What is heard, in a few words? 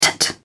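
"tut", English, British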